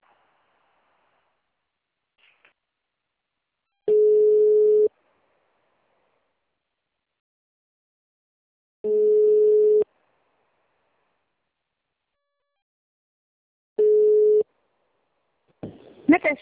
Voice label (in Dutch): Voicemail mobiel